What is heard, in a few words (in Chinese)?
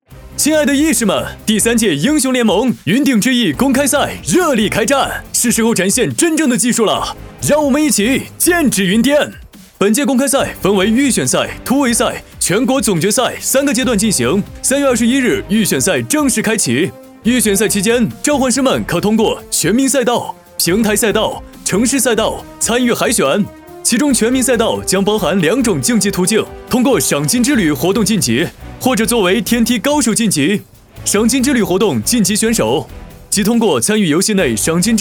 男 比赛解说; 云顶之弈公开赛